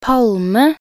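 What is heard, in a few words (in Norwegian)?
"palme", Norwegian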